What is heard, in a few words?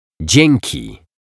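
"dzieki", Polish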